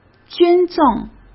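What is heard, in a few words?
"捐赠", Mandarin Chinese; chinese flashcards; hsk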